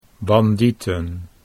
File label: "bandieten", Dutch